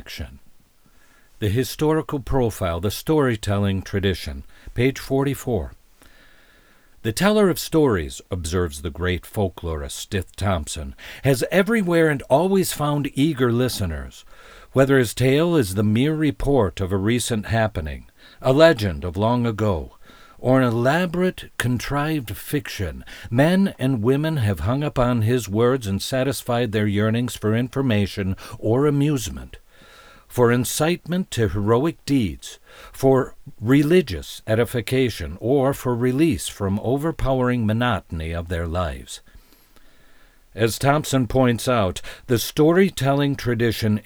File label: Straight Read